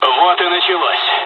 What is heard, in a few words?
dialog, dialogs russian; War Thunder